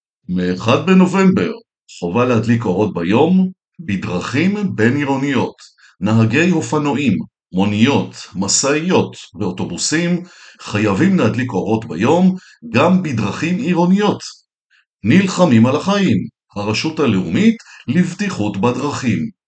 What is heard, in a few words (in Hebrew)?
תשדיר